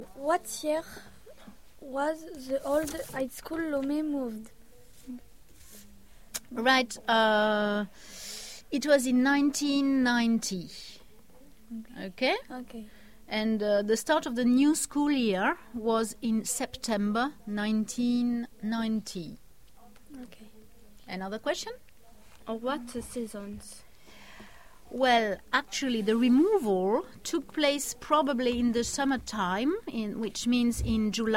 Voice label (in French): demenagement en anglais